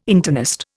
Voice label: "internist", Indian